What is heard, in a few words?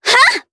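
Vox Casting, Japanese; Rodina; kingsraid audio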